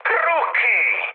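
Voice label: Italian enemy detected mood high, speech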